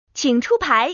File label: start poker, woman